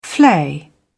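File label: "flay", British English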